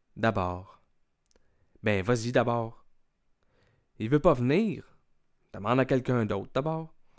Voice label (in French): d'abord, québécois